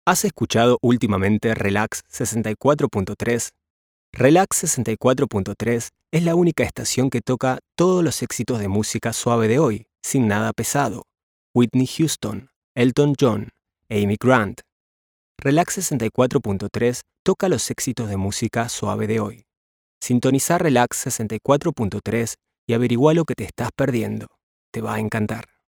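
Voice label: Latin American Spanish general; GoLocalise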